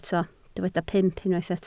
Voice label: "pump", Welsh